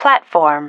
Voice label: "platform", female

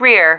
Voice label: "rear", female

